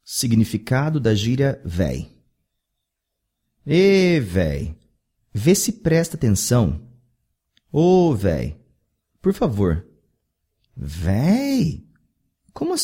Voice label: "véi", portuguese

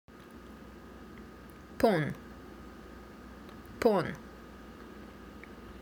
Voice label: "phone", Korean

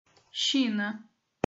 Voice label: "china", portuguese

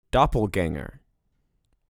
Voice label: "Doppelgänger", US

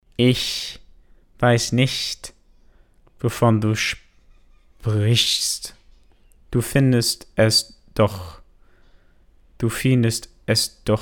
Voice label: German Sentence American Accent with Difficulties

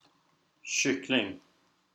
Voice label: "Kyckling", Swedish

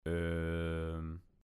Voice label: Dutch Uhm

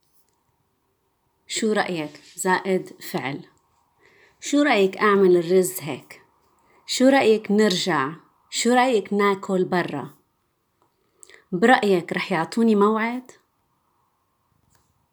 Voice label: verb, arabic

